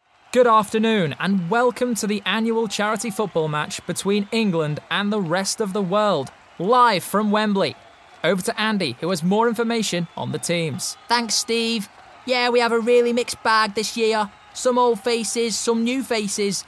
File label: Animation; regional accents